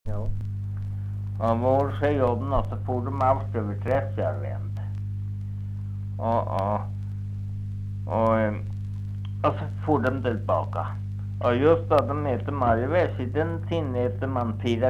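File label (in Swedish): Norsjö Västerbotten, dialekter, Svenska sagor; Folkminnen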